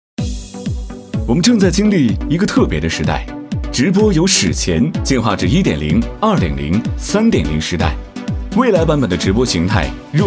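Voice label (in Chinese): 科技感招商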